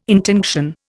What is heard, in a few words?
"intinction", Indian